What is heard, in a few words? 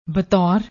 "batour", Punjabi